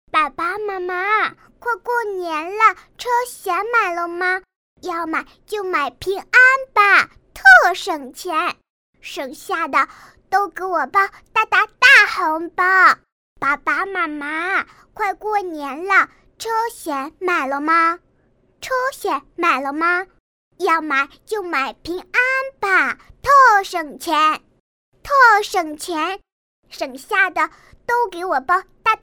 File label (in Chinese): 车险, 国语女声配音, 童声